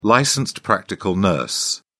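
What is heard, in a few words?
"licensed practical nurse", British English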